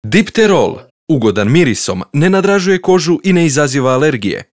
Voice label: croatian m commercial, voice demos

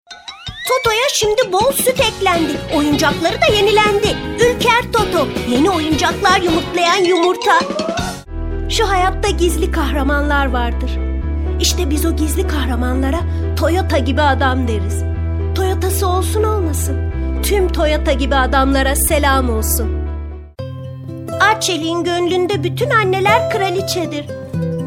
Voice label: turkish f commercial

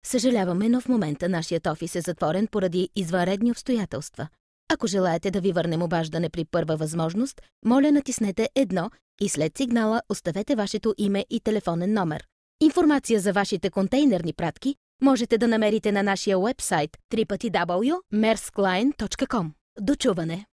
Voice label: bulgarian f ivr; voice demos

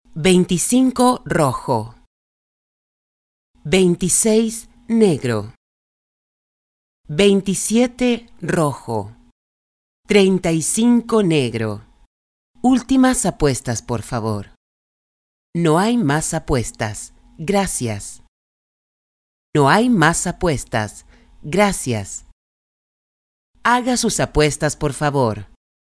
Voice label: brazilian f ivr, portuguese bra voice

